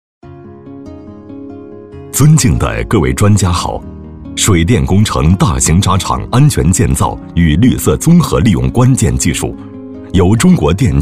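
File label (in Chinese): 男【汇报片】; 水电工程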